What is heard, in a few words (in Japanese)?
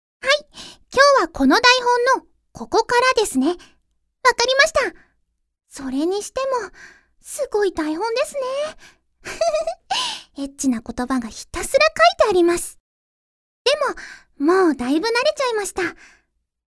声優 の オナニー用 淫語 連呼 音声, ASMR; 手慣れた声優のオナニー用淫語連呼音声